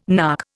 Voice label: "nark", Indian